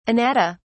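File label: "anatta", English, British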